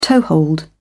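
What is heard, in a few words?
"toehold", British English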